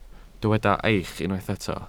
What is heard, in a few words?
"eich", Welsh